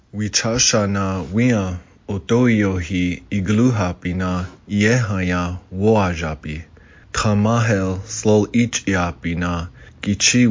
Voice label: udhr lakota

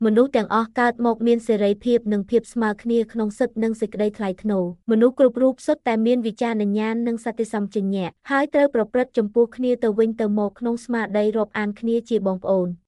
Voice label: Khmer

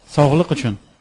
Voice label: cheers uzbek

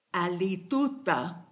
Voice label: good night, kichwa

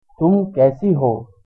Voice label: how are you, hindi

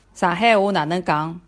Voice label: how to say, shanghainese

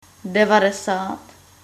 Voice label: "ninety", czech

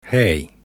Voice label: hello, finnish

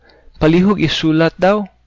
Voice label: write, cebuano; Palihug isulat daw

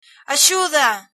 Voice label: help, galician

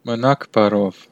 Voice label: goodbye, armenian western

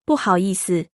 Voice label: bless you, mandarin

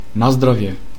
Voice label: cheers, macedonian

